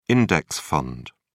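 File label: "index fund", British English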